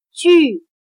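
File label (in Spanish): "据", chino mandarin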